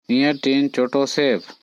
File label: Korku; Eya tin choto sefh?